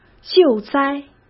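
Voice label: "救灾", Mandarin Chinese; chinese-flashcards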